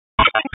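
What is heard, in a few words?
Police Dispatch; Wake Forest Police Disp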